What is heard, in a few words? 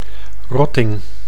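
"rotting", Dutch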